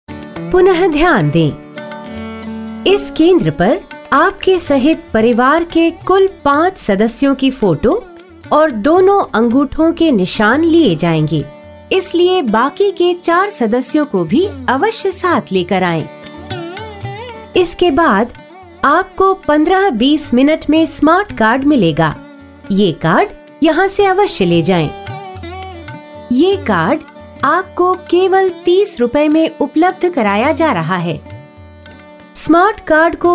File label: Hindi Vo, female voice